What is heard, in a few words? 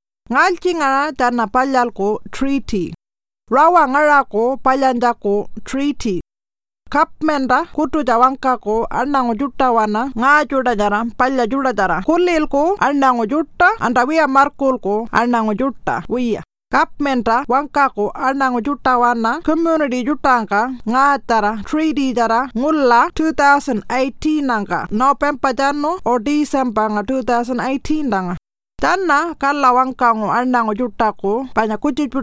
Pintupi Luritja; When will a Treaty be made